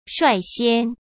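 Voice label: "率先", Mandarin Chinese; chinese flashcards; hsk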